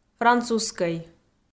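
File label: "французскӧй", Komi, female; kpv audio dict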